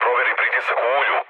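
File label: Serbian damaged oil mood low, speech; War Thunder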